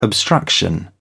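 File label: "obstruction", British English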